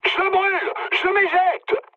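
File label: French on fire mood high; War Thunder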